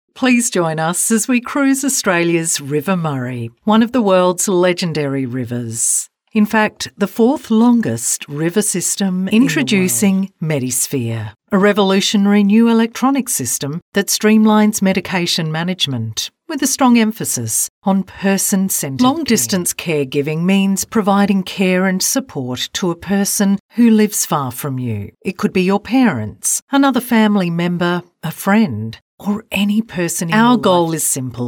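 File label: Natural, voice-overs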